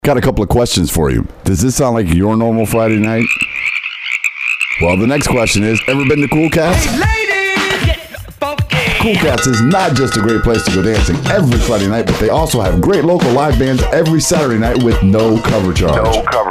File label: Karaoke, radio spot; Kewl Cats Nightclub; Kewl Cats Pre-Thanksgiving Party With Kowhoon!; 92.5 FM The Bear; KABX 97.5 FM